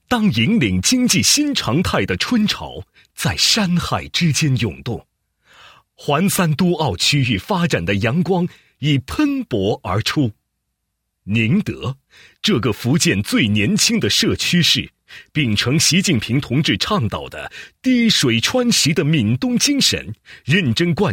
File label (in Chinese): 男 城市地区介绍; 中国宁德宣传介绍